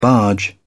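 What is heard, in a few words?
"barge in", British English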